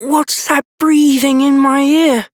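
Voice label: Child Rhymes Fear Child Male; Duviri; Childrens Rhymes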